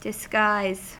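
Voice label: "disguise", American English; pronunciations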